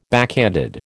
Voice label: "backhanded", American